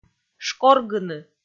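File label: "шкоргыны", Komi, female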